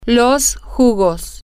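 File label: the juices, Spanish, female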